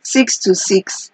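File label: "six to six", Nigerian Pidgin, female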